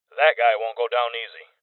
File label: Voices Heavy Spotted Male